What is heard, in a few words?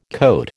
"cote", American